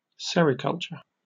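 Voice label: "sericulture", British English; pronunciations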